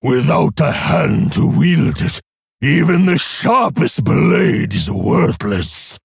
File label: Male Orc Idle; Samuro; Kurtrus